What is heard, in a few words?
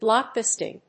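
"blockbusting", English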